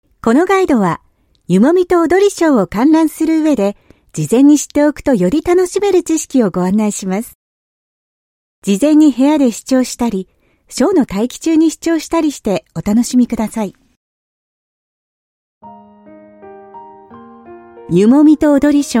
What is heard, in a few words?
Japanese Tour Guide